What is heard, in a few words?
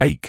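"ache", British English; eɪk; ayk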